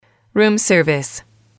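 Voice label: "room service", English, female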